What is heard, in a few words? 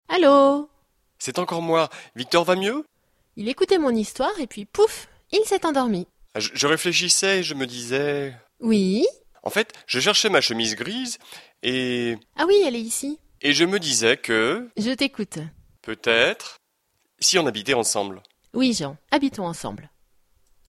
dialogue, French